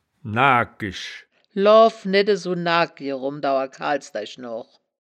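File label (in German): "naagkisch", Wittlicher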